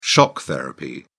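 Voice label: "shock therapy", British English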